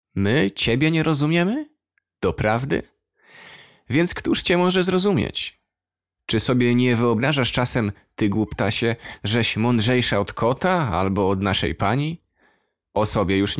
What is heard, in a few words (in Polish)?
audiobook